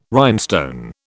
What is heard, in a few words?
"rhinestone", British